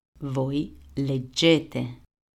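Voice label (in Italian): "leggete", Italian; verbi; presente; grammatica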